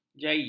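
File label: "jae", Malay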